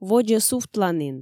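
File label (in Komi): "водзӧ сувтланін", Komi, female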